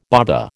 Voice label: "baba", American

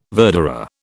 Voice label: "verderer", British

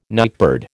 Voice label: "nightbird", American

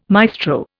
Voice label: "maestro", Indian

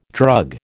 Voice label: "drug", American

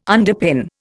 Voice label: "underpin", Indian

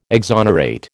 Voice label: "exonerate", American

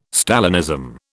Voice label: "Stalinism", British

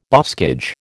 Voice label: "boscage", American

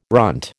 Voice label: "runt", American

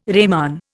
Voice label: "reman", Indian

